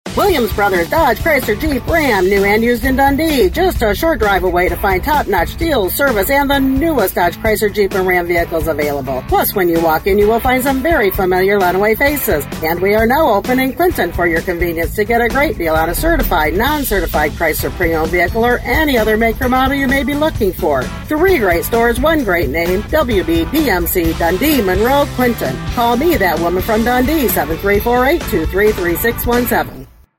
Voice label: RADIO AD